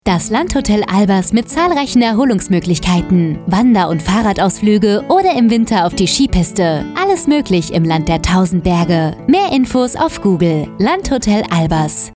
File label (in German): Funkspot; Landhotel Albers